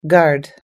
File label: "guard", us-english